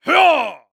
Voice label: Human Cowboy "Hee Yah" Voice